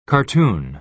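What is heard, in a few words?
"cartoon", American English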